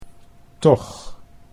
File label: "toch", Dutch